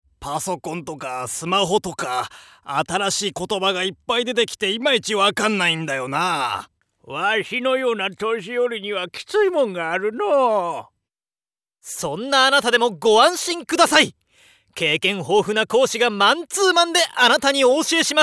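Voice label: narration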